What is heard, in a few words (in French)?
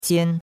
"qian1", chinois; Cours-de-Chinois